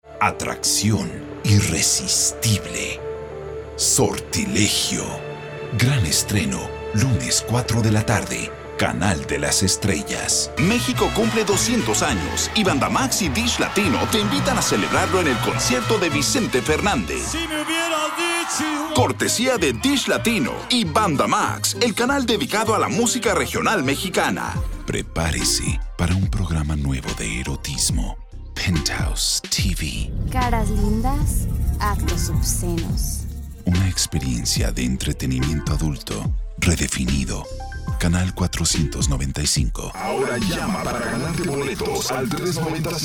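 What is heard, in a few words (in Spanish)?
Promos, español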